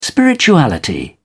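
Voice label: "spirituality", British English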